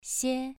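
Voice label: "xie" Mandarin Chinese, second tone, pronunciation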